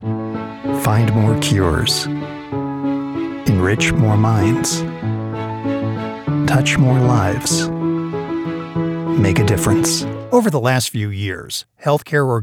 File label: Medical ELearning